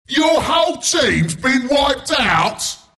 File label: announcer kill teamwipe; killing spree; dota; announcer dlc bristleback